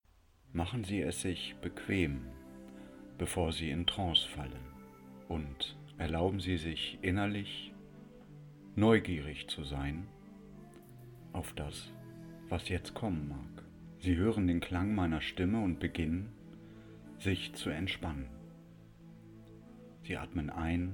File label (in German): Tranceinduktion mit Musik und Echo